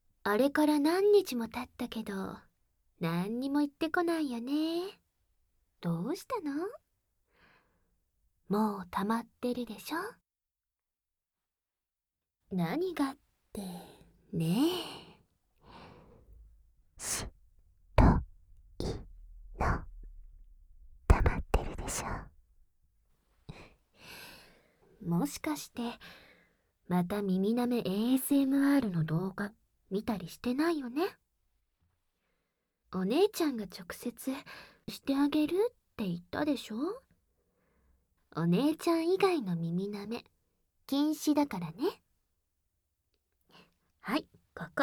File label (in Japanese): お姉ちゃんの耳舐め指マ○コセックス (両耳舐め・SEなし)